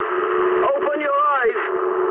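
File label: English player friendly fire mood medium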